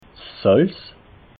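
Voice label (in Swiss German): "salz", Alsatian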